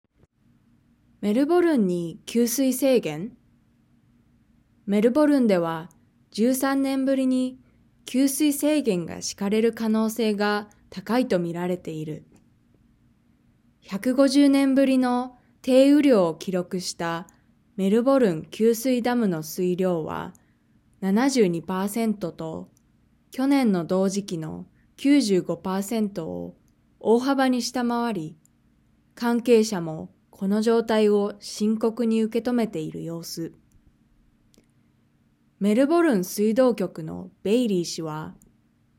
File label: Reading natural; Nakama; OJAD